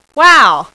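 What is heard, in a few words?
"wow" female; voice; Web Clip Empire 150,000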